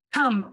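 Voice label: Voice Acting es, female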